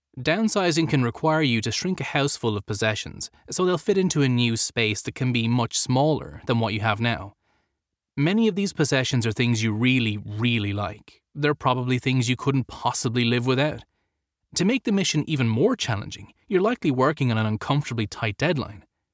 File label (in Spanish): Masculino